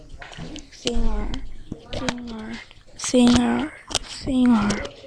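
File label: "singer", spoken word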